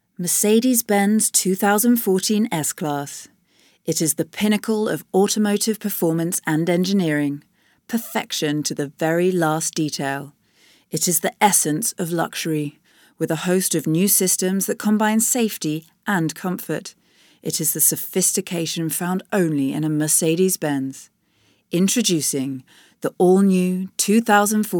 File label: UK, voice-overs; Mercedes